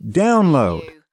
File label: "download", US male; lynne publishing